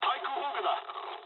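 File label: damaged aa mood med, speech japanese; War Thunder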